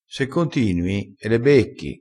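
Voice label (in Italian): "becca", vocabolario dialettale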